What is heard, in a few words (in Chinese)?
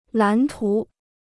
"蓝图", Chinese